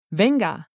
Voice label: "venga", Spanish

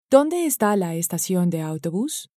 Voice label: donde esta la estacion de autobus, Spanish

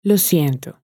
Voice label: lo siento, Spanish

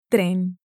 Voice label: "tren", Spanish

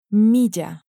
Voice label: "milla", Spanish

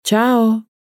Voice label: "chao", Spanish

